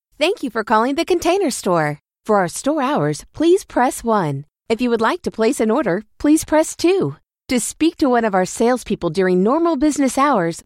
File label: IVR